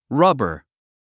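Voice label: "rubber", English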